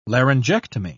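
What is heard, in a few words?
"laryngectomy", British English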